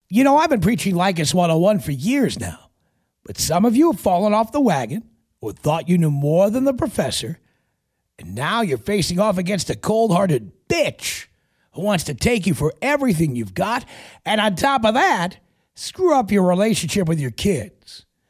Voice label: commercial, radio; leykis